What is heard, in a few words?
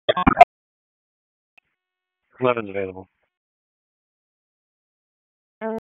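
EMS Dispatch; wake; p25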